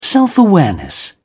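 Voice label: "self-awareness", British English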